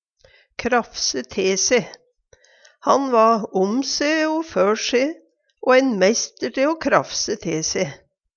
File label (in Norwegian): krafse te se, Numedalsmål; Uttrykk